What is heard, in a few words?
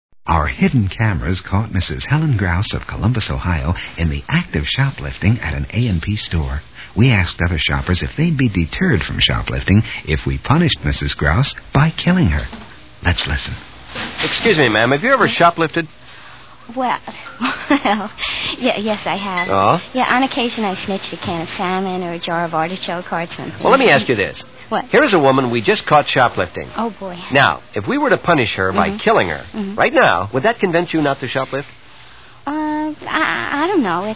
Commercials